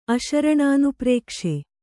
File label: "ಅಶರಣಾನುಪ್ರೇಕ್ಷೆ", Kannada; aśaraṇanuprēkṣe; noun